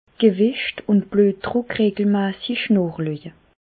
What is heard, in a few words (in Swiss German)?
bluetdruck, Bas Rhin; medecine; surveiller son poids et sa tension